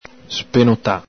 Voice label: "spenota", Veneto